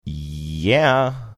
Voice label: drawn out yeah

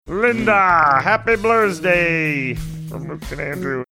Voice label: funny

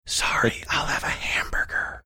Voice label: whispering sorry i'll have a hamburger

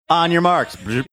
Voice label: saying on your marks making record scratch sound

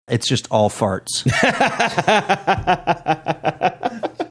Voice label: its just all farts with laughing

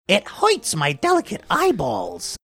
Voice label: funny

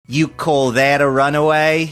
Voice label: you call that a runaway faux australian accent

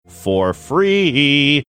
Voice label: saying for free sing songy manner

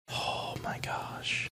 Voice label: whispering oh my gosh

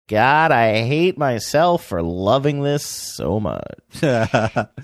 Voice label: saying god i hate myself funny

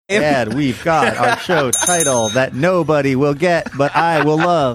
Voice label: saying and we've got our show title staccato

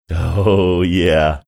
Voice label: drawn out oh yeah

